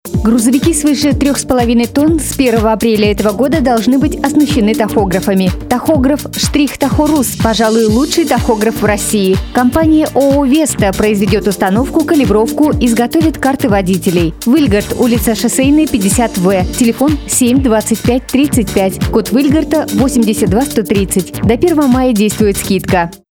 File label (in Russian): Партнерский рекламный ролик